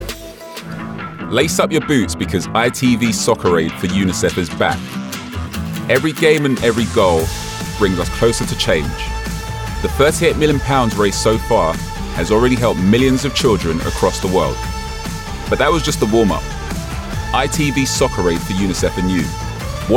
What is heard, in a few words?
voices male english promo; itv soccer aid for unicef 2022; Devine Voices